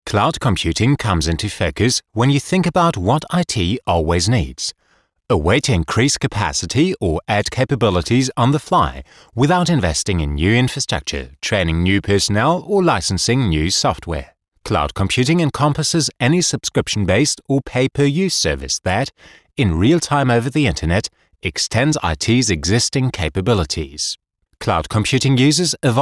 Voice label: Eng UK Kommentar Neutral

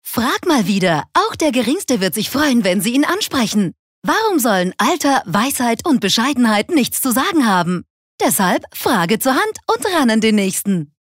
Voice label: Werbung Dynamisch

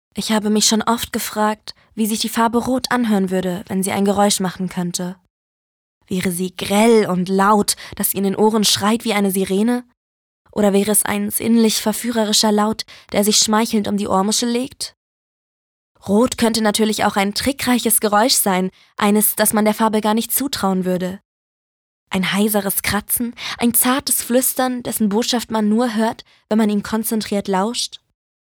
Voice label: Melancholisch; FarbeRot

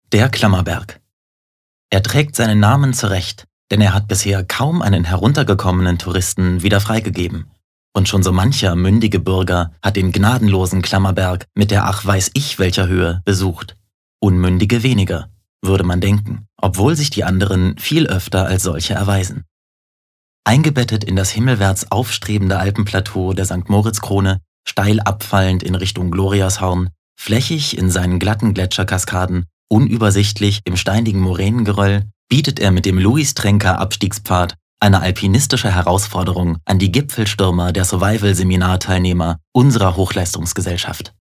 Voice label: Kommentar Neutral

